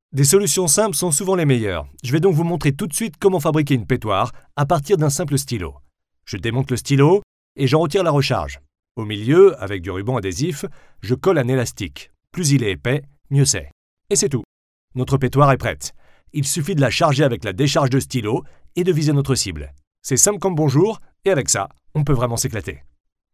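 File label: french male; lektoring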